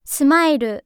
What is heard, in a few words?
voice sound "smile"